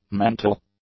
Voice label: "mental", english; adjectives

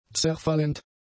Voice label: "zerfallend", German; adjectives

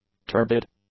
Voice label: "turbid", English; adjectives

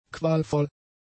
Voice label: "qualvoll", German; adjectives

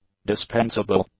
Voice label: "dispensable", English

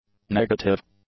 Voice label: "negative", english; adjectives

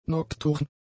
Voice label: "nokturn", German; adjectives

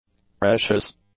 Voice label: "precious", english; adjectives

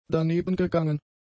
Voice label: "danebengegangen", German; adjectives

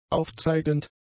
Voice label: "aufzeigend", German; adjectives

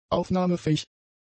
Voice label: "aufnahmefähig", German; adjectives

